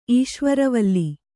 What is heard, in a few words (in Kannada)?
"ಈಶ್ವರವಲ್ಲಿ", Kannada